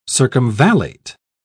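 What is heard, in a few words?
"circumvallate", British English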